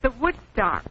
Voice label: the woodstock, Quirky Female Typing Instructor; VOCALS and SPOKEN WORD